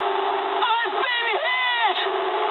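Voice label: aircraft damaged mood high, speech English; War Thunder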